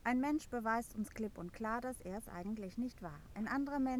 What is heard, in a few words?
room speech 8kHz